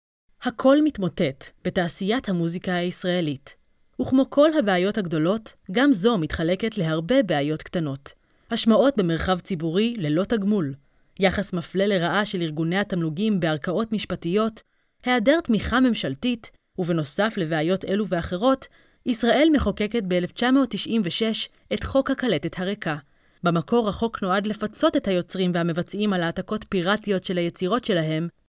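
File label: Hebrew Female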